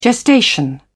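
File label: "gestation", British English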